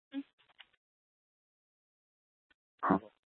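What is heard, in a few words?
Tempe Police Department, scanner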